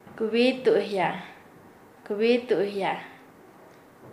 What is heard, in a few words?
"permitir hacer", Nasa Yuwe